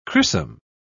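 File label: "crissum", British English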